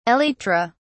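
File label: "elytra", British English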